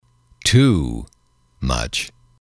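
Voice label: "too much", English, male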